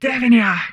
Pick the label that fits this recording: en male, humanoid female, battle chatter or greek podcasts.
humanoid female